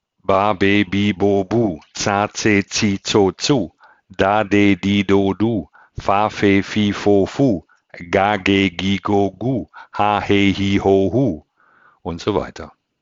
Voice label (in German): stimmtraining ba be bi bo bu; die macht der stimme; kommunikation